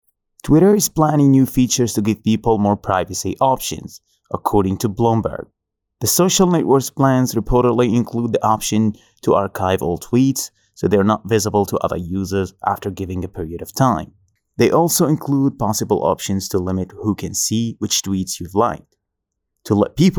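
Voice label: Arabic English, male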